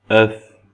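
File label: "oeuf", French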